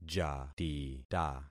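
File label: "jatita", concatenated syllables; Affixing experiment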